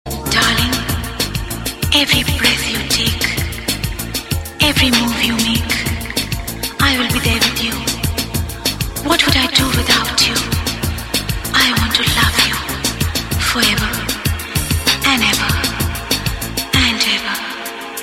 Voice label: Words Of Love Female